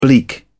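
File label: "bleak", British English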